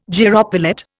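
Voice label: "gyropilot", Indian English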